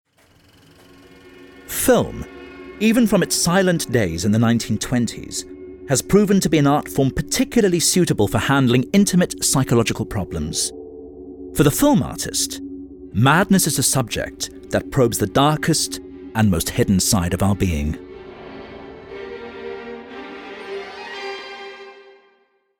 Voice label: Documentary English; voice